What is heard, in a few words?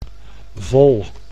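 "vol", Dutch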